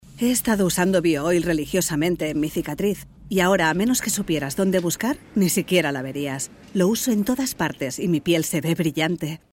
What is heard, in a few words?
female spanish skin care comercial; ideasonora